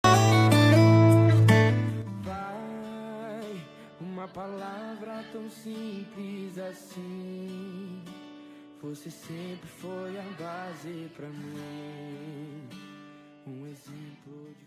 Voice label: masc, Dia dos Pais – Voz Masculina; Voz Masculina Linda

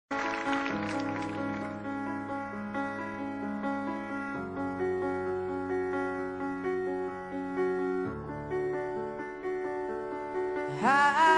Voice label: romântica, Telemensagem Romântica GLS – Voz Masculina

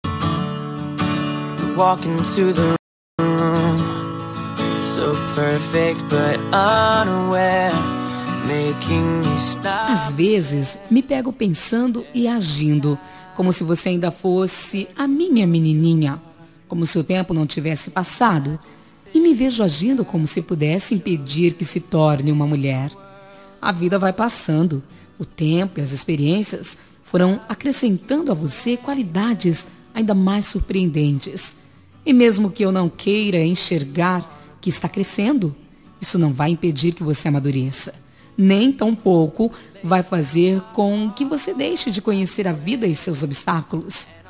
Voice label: voz feminina; Dia dos Namorados; pessoa especial

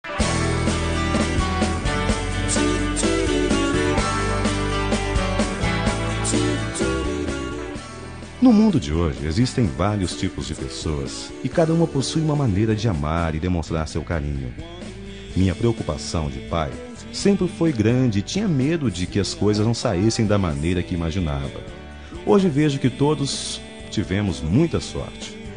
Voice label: nora masc, Aniversário de Nora – Voz Masculina; Telemensagens para todas as ocasiões

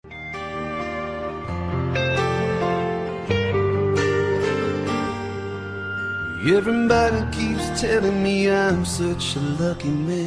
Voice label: tio masc, Dia dos Pais para Tio – Voz Masculinas

